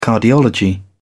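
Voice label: "cardiology", British English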